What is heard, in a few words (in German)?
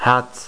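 "Herz", German; Skat 3.0 Demo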